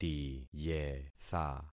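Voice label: "tiyetha", concatenated syllables; Affixing experiment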